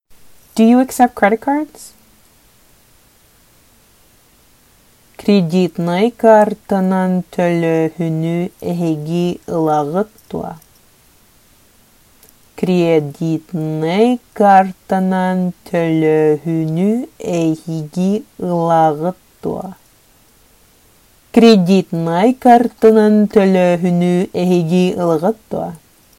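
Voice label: Sakha Do you accept credit cards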